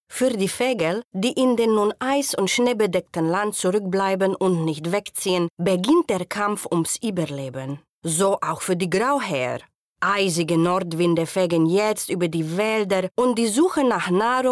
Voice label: Doku Balkan

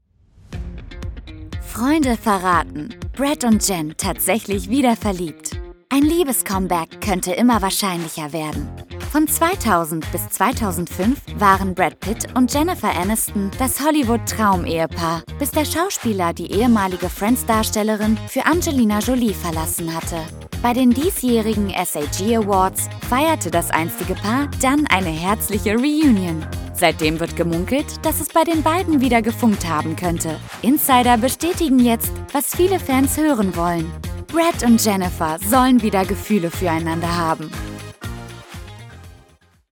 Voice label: mit Musik; Brad und Jen

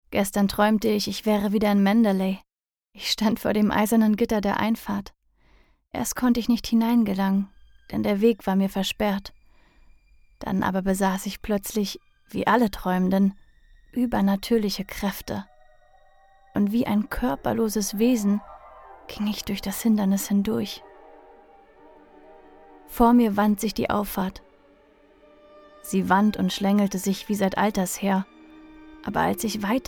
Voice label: OFF Literatur Drama; Rebecca

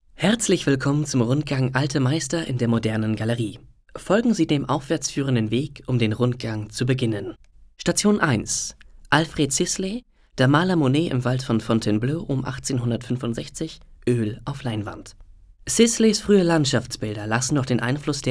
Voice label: Sachtext Audioguide

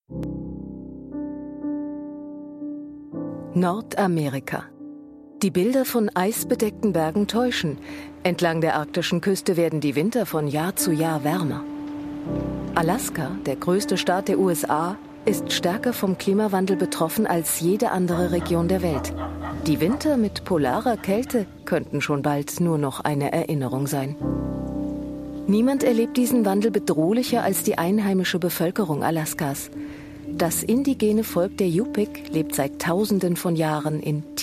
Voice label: kommentar doku; LOFT VOICES

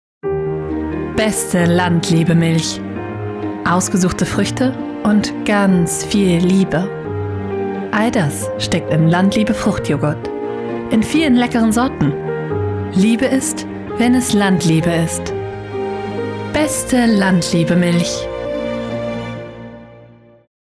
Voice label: Werbung Joghurt

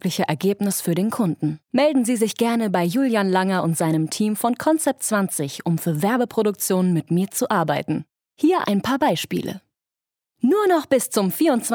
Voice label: Sprachproben Werbung; LOFT VOICES

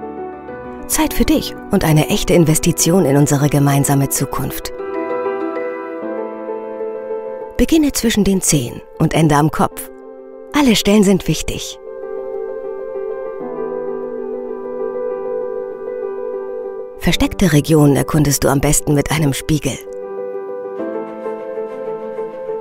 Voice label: Lehrfilm Check deine Haut; LOFT VOICES

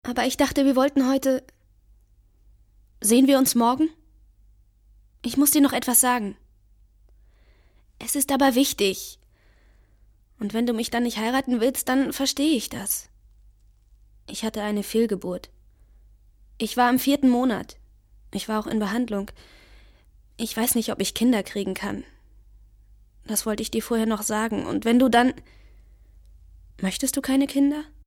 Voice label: synchron

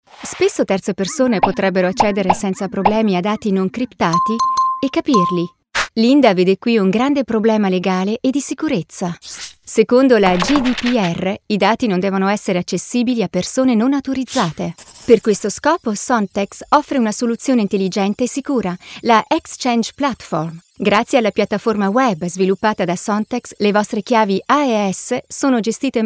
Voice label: Erklaerfilm; SONTEX; LOFT VOICES

